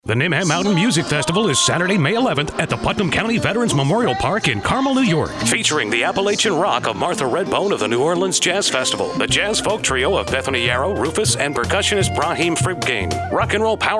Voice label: radio spot; HG Fairfield Arts Nimham; wpdh